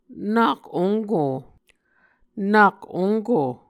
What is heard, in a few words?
"nak onko", Muscogee